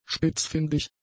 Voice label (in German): "spitzfindig", german; adjectives